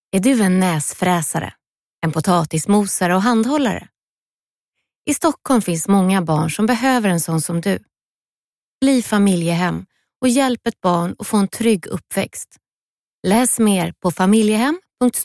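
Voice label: Swedish female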